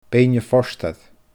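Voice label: "Beinn a Phortair", Scottish Gaelic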